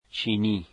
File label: "Chinese", dari